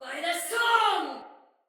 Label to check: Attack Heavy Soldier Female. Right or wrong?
Right